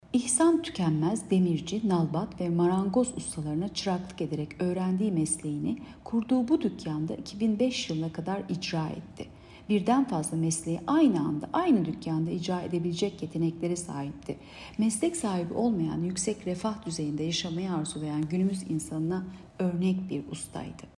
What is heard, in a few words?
turkce